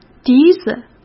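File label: "笛子", Mandarin Chinese; chinese-flashcards; hsk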